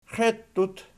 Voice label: "xeittut", Archi